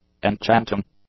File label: "enchanting", English; adjectives